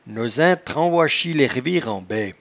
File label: "nosainstranvoichi", patois jura